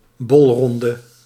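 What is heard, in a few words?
"bolronde", Dutch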